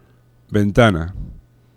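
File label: "ventana", Spanish; laboratori fonetica; paraules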